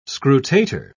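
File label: "scrutator", English, British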